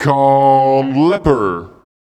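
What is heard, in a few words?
vocal male, Dance Vocals, spoken words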